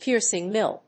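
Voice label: "piercing mill", English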